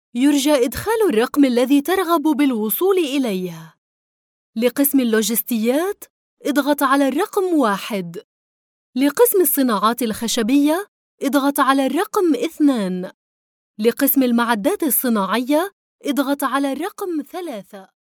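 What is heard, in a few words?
Arabic, female, narration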